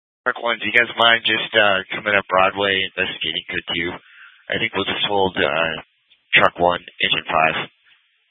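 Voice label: Incident Tac 2, scanner audio recording, Grand Junction, Mesa County, Colorado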